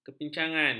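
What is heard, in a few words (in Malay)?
"kepincangan", Malay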